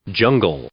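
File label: "jungle", English, male; noun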